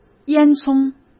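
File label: "烟囱", Mandarin Chinese; chinese flashcards; hsk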